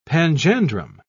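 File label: "panjandrum", British English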